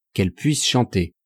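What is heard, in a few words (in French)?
quelles puissent chanter, French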